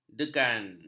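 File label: "degan", Malay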